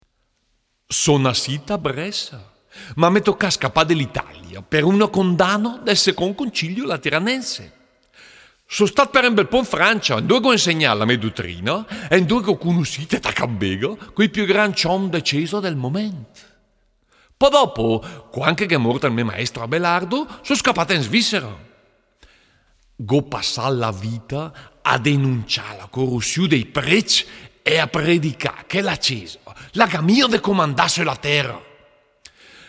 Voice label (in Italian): dialetto